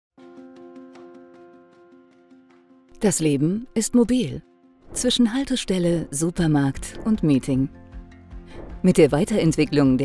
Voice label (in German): erklaerfilm; acrevis mobile app